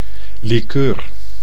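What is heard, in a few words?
"likeur", Dutch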